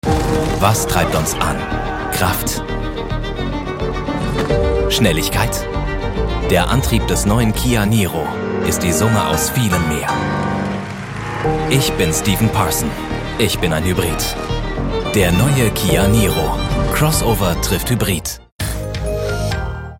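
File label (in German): Werbung, Cool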